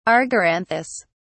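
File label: "argyranthous", British English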